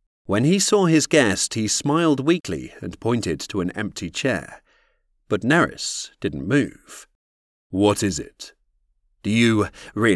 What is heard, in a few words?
audiobook